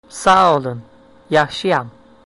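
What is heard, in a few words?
fine, Azerbaijani; Sağ olun, yaxşıyam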